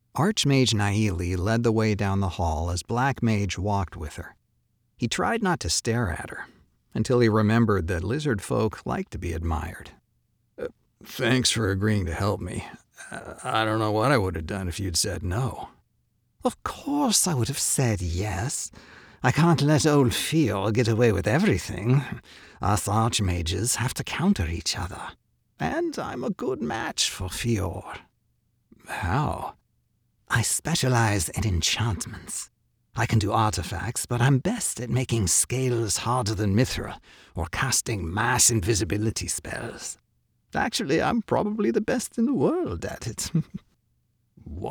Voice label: Fiction Fantasy, masculine voices

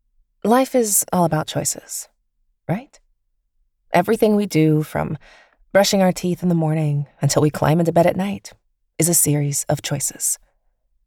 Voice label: Literary Fiction, feminine voices

